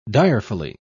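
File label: "direfully", British English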